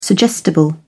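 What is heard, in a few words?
"suggestible", British English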